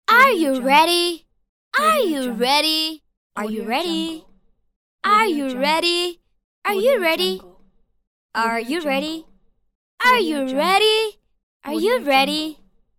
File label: teenage girl saying are you ready